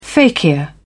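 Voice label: "fakir", British English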